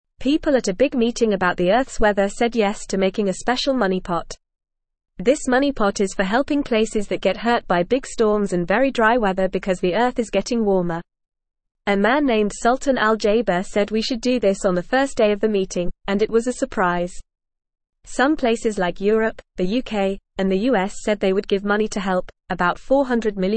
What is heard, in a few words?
English Beginner FAST Reading; Big Meeting Agrees to Help Places Hurt by Bad Weather; English Newsroom